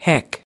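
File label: "heck", American English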